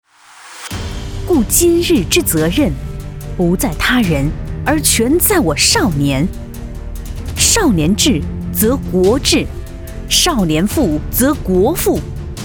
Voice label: 国语女, 党建宣传; 少年中国说

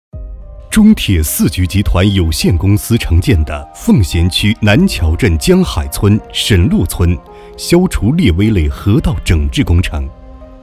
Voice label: 国语男, 解说, 河道整治工程

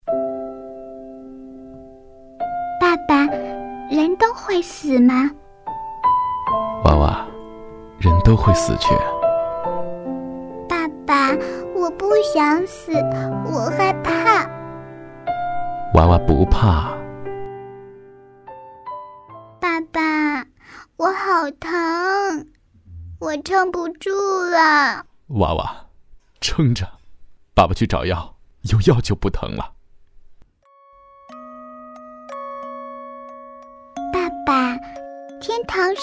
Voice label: 国语男, 角色公益类; 爱心救助

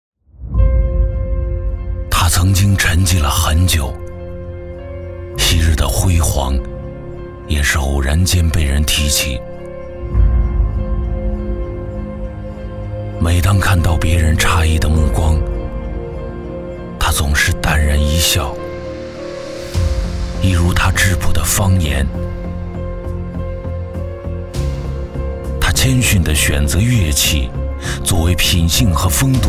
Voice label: 国语男-【叙述专题】; 郑州故事

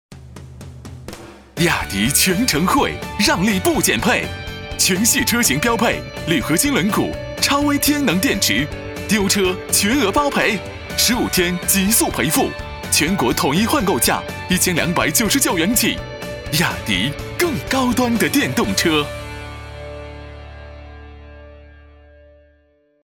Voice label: 国语男 电台 广告; 雅迪

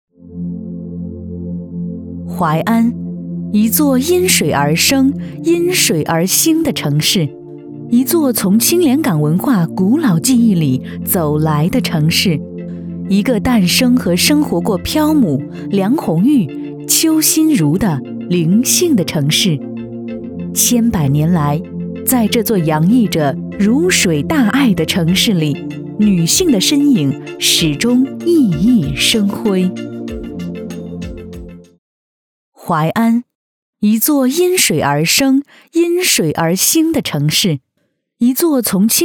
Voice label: 国语女, 专题; 淮水

